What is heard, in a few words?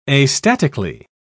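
"astatically", British English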